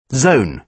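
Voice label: "zone", British English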